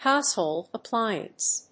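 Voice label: "HOUSEHOLD APPLIANCE", English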